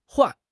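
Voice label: "huan4", Mandarin Chinese; HSK3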